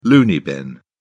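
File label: "loony bin", British English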